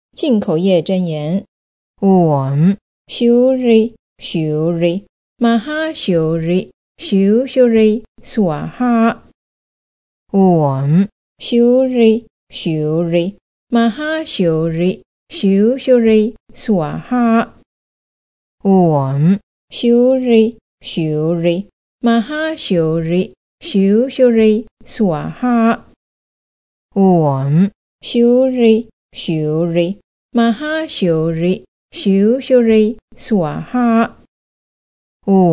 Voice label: Mantra Speech